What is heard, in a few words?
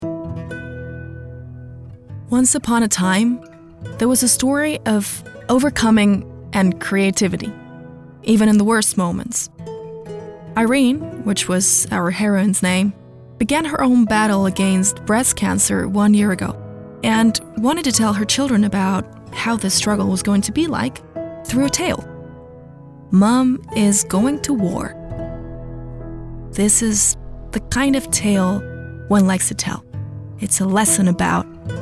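US English NARRATIVE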